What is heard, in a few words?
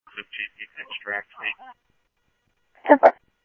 PD Police, scanner audio